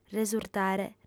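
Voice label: "resurtare", Sardinian, female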